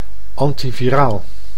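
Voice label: "antiviraal", Dutch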